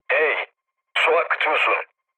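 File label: Turkish damaged water, mood low, speech; War Thunder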